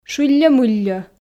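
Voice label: "шылльӧ-мылльӧ", Komi, female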